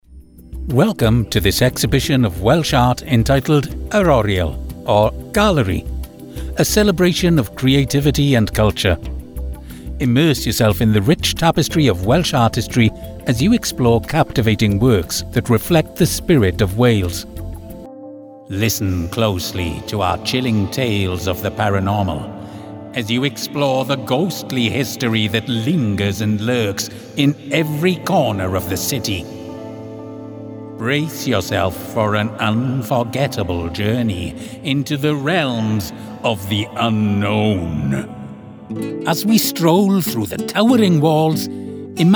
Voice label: english british audio guides

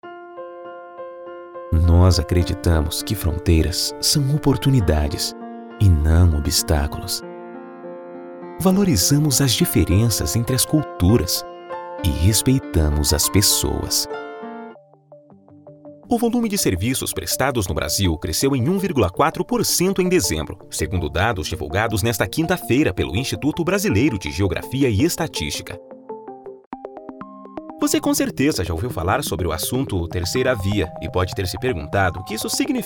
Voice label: portuguese brazilian corporate videos

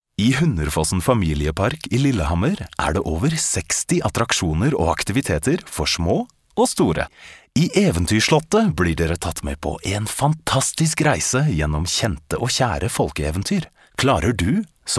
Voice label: norwegian promos

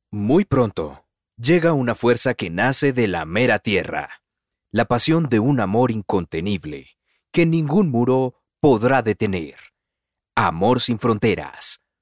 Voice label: spanish latin american neutral movie trailers

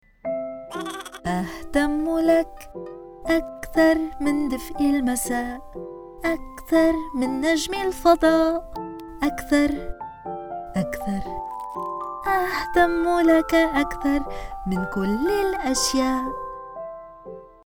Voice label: arabic

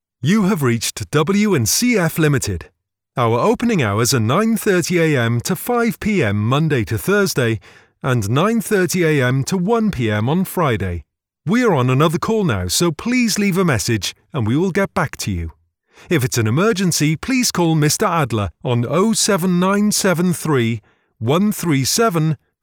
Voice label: english british ivr

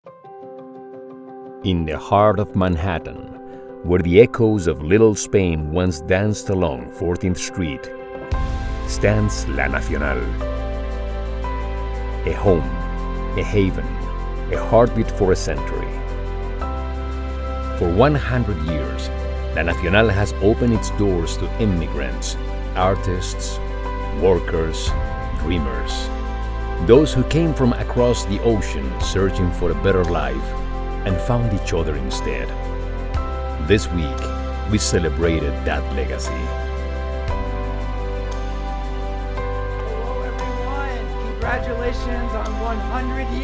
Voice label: english corporate videos

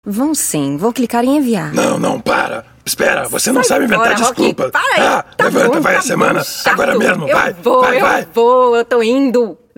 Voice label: Portuguese Brazilian animation

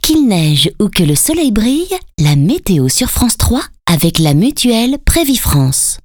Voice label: french commercial

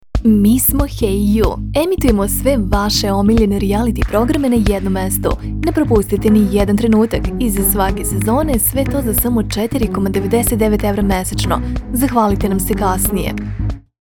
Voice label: Serbian automotive; Professional Serbian native voice-over actor from Belgrade

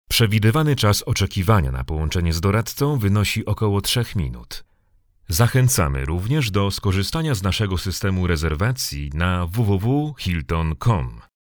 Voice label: polish ivr; Ein professioneller polnischer Sprecher mit langjähriger Erfahrung in landesweiten Medien

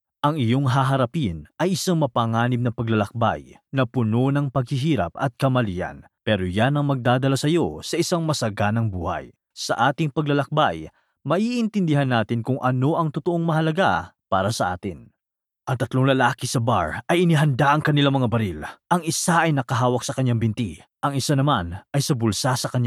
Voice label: tagalog audiobooks

